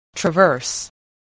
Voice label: "traverse", voice